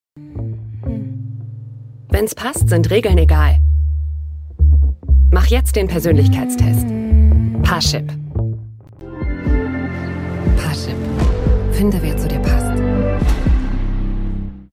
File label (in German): Brand Voice; Parship